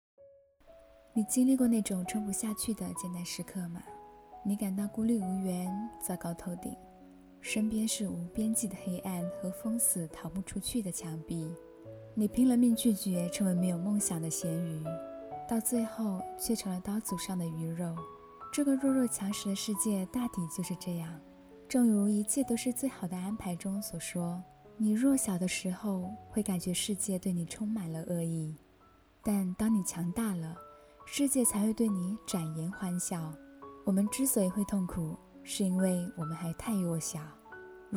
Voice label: 情感

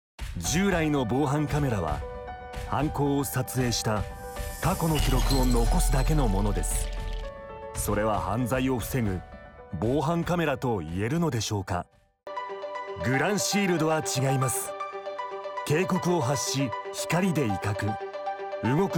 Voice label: 日语男声

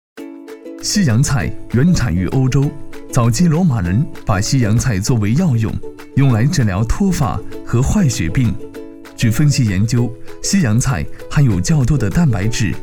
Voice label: 宣传片大广美食

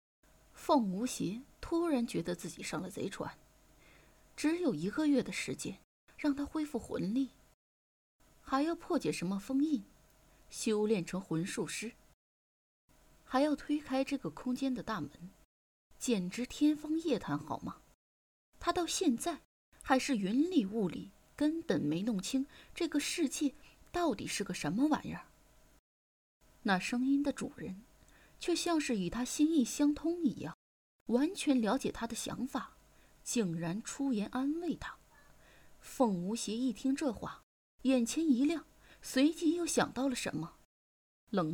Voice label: 女旁白; 邪医狂妻

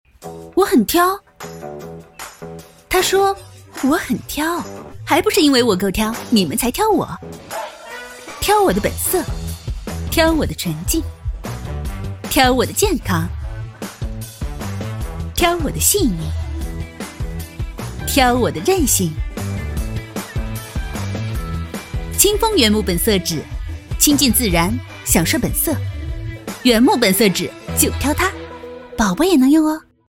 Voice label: 清风纸广告音频

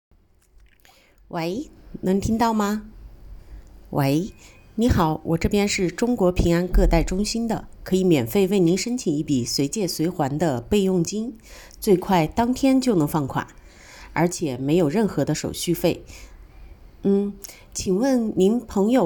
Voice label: 女生配音话务稿

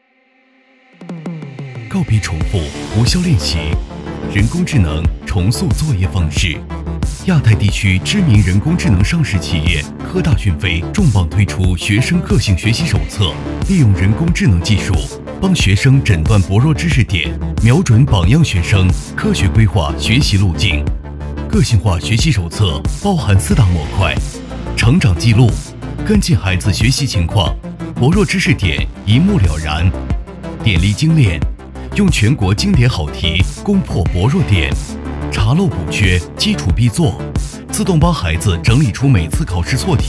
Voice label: 学生个性学习手册 科技大气; 科大讯飞重磅推出

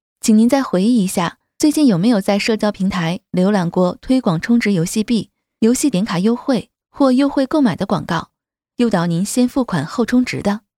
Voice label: 语音客服

